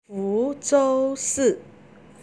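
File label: "fuzhou", Chinese; 福州市; Fú zhōu shì; 福建省; Fú jiàn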